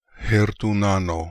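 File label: "Hertoonano", pronunciations; Fourth Way; Beelzebub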